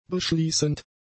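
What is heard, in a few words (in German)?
"beschließend", German; adjectives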